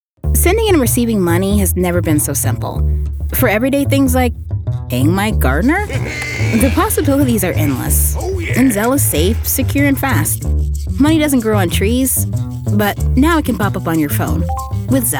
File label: Commercial